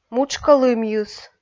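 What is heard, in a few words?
"мутшкӧ лымйыс", Komi, female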